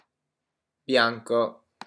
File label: "bianco", pronunciation wine words